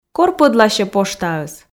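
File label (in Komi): кор пӧдласьӧ поштаыс, Komi, female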